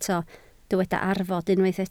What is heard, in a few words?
"arfod", Welsh